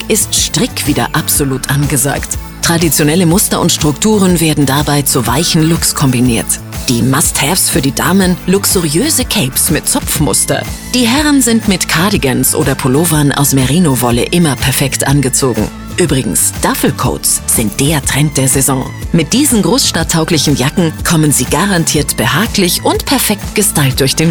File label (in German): esprit infomercial, radiowerbung